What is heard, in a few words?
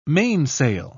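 "mainsail", British English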